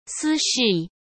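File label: "私事", Mandarin Chinese; chinese flashcards; hsk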